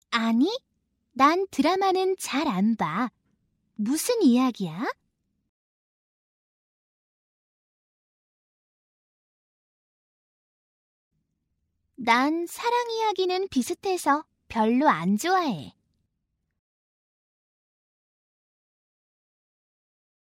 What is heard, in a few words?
korean